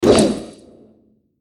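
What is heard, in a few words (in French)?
"CATAPULTE", French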